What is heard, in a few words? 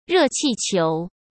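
"热气球", Mandarin Chinese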